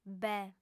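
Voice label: "beh", female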